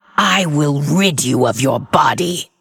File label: Female Lich Threaten